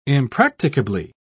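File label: "impracticably", British English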